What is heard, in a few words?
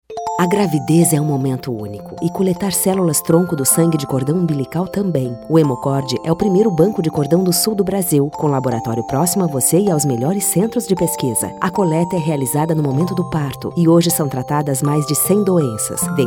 Spot